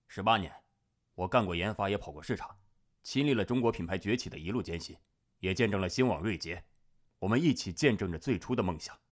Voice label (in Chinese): 广告类中年